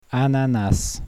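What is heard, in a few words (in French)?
"ananas", French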